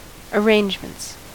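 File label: "arrangements" English, US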